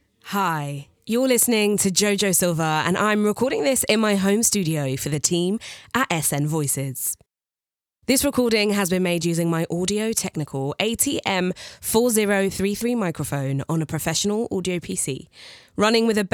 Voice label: Bi-lingual English/Portuguese, Warm, Bubbly, Friendly, Relatable, Strong, Sassy